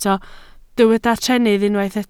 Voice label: "trennydd", Welsh